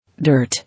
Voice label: "Dirt", English